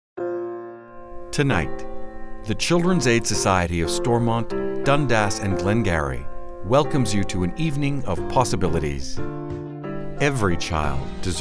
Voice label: Narration, Voice Work